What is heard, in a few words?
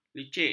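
"licik", Malay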